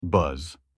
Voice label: ice in turkish

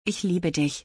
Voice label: I love you in German

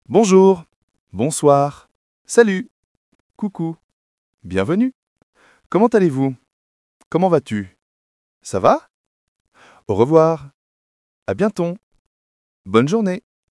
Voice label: welcome in french

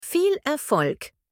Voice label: good luck in turkish

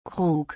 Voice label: Pitcher in German

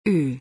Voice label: The letter U in German

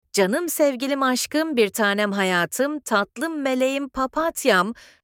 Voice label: darling in turkish

